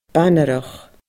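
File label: "banarach", Scottish Gaelic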